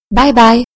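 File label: "bye", English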